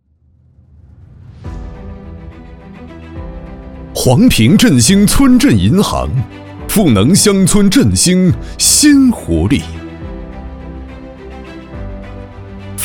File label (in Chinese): 男 宣传片 大气; 银行赋能